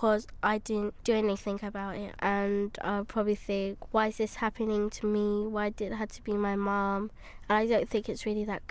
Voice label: children in stop smoking advert